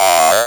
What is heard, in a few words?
ROB VOX